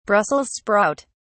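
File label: "brussels sprout", English, British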